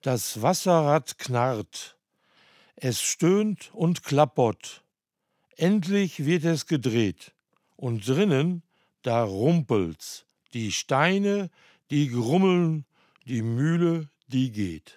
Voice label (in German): Hochdeutsch; Muehle; Nottuln; Plattdeutscher Weg